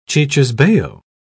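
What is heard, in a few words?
"cicisbeo", British English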